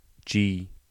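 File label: English alphabet g; G [dʒi]; Anglais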